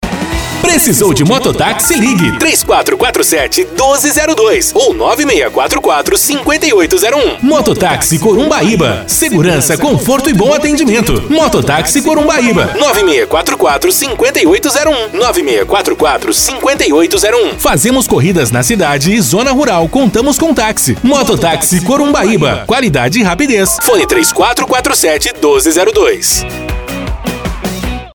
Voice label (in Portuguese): VOZ IMPACTO GRAVE vinheta para moto taxi vinheta spot chamada comercial propaganda anuncio